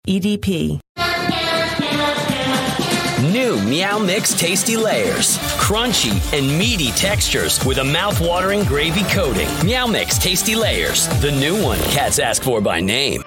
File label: commercial men